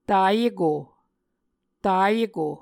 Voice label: "tayeko", Muscogee

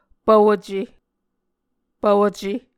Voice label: "pvoce", Muscogee

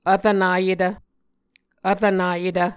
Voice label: "vrvnayetv", muscogee

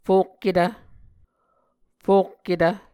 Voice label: "fokketv", Muscogee; A Dictionary of Creek/Muskogee; SIL International

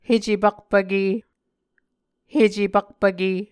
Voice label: "hecepakpvke", Muscogee

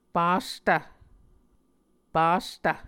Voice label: "pastv", Muscogee

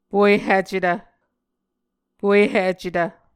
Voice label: "puehicetv", Muscogee

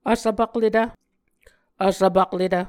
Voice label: "vsvpakletv", Muscogee

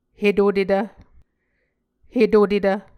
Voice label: "hetutetv", Muscogee

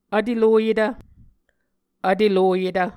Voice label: "vteloyetv", Muscogee

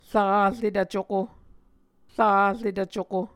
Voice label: "ravretv cuko", Muscogee

